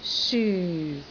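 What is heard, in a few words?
"syu4", Cantonese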